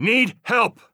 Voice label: help, heavy; vo; Counter Strike Model Pack; CS:GO; phoenix coop